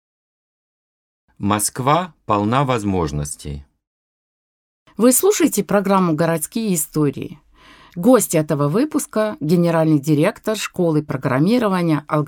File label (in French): Russe